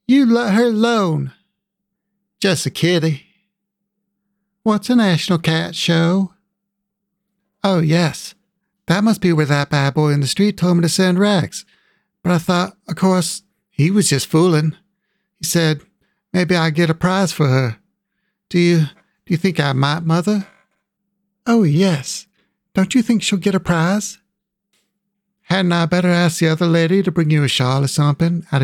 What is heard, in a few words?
Female - Child - American Southern - General